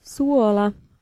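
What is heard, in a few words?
Finnish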